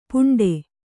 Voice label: "ಪುಂಡೆ", Kannada

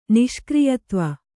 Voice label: "ನಿಷ್ಕ್ರಿಯತ್ವ", Kannada

